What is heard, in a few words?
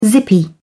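"zippy", British English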